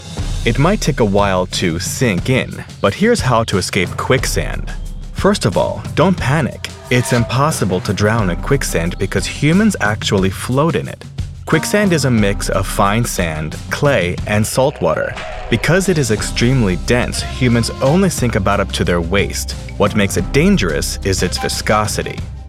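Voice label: Educational, male voiceover; Quicksand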